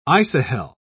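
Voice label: "isohel", British English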